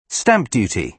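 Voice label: "stamp duty", British English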